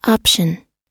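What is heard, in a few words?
"option", English; oxford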